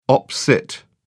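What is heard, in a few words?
"op cit", British English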